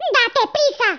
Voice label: come on then, Spanish, Speech

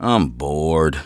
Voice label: conversation, English Voice

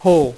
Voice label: "hole", dealer's speech; PowerPOKER Demo

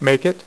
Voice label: "make it", dealer's speech; PowerPOKER Demo

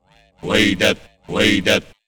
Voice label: VOICEFX TEKNO 140, Techno, Voice

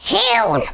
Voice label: Revenge, Danish

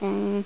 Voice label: "hmm", French

